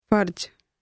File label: duty, punjabi; English: duty